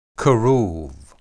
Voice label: "kerub", Hebrew